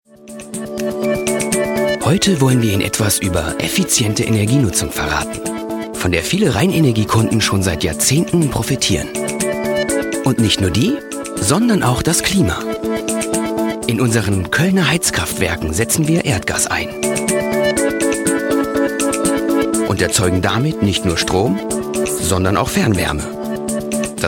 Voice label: imagefilm; rheinenergie

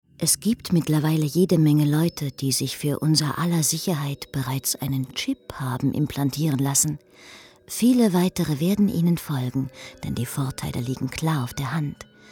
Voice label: hoerspiel; chipkarte

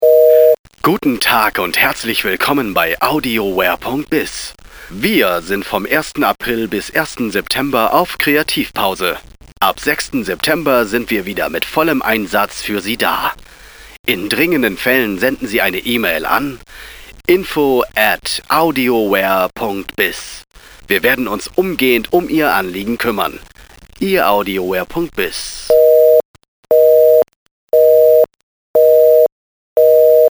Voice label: telefon

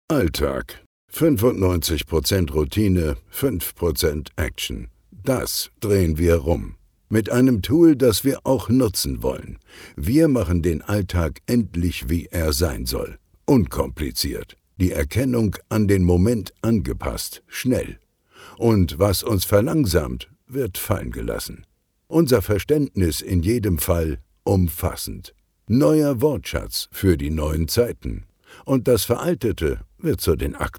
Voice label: polizei senior cool

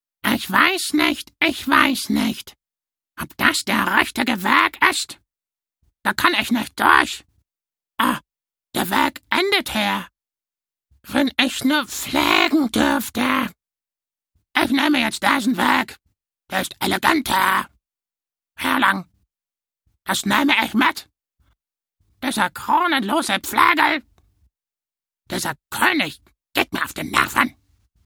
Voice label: trickstimme kraehe

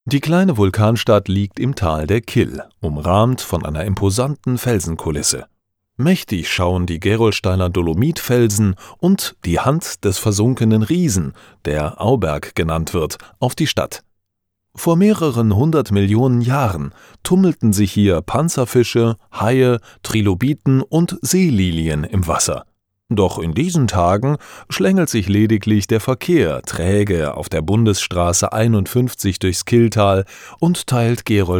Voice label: doku hoerbuch; Sprecher in Bornheim; Muttersprache Deutsch; gerolstein

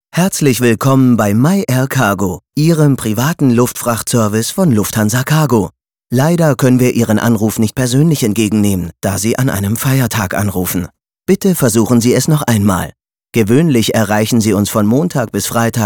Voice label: callcenter deutsch

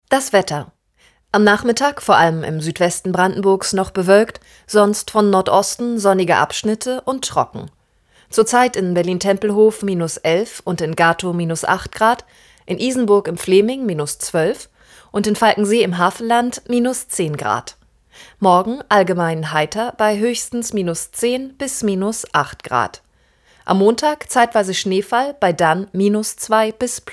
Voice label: wetter sachlich

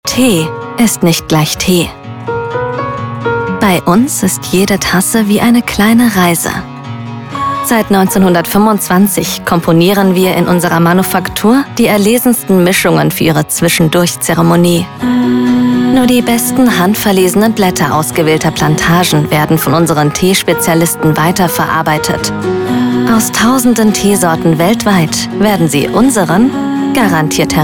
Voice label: werbung sanft tee

